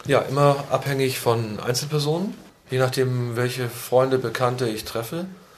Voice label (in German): rendsburg interview